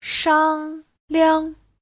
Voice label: "shang1liang5", Chinese; 商量; thương lượng, bàn bạc

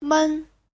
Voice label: "men", Chinese; Giáo trình Hán ngữ

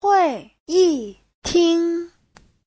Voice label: "hui4 yi4 ting1", chinese; Giáo trình Hán ngữ

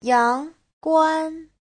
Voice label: "yang2 guan1", Chinese; Giáo trình Hán ngữ

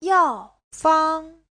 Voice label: "yao4 fang1", Chinese; 药方; đơn thuốc, bài thuốc

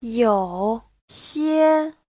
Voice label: "you3xie1", Chinese; Giáo trình Hán ngữ

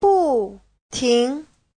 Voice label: "bu4ting2", Chinese; Giáo trình Hán ngữ

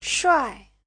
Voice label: "shuai4", Chinese

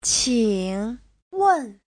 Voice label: "qing3wen4", Chinese

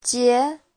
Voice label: "jie2", chinese; Giáo trình Hán ngữ

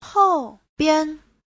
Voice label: "hou4bian5", chinese; Giáo trình Hán ngữ

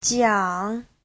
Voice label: "jiang3", chinese; 讲; Giáo trình chuẩn HSK3

